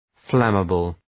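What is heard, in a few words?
"flammable", English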